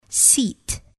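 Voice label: "seat", English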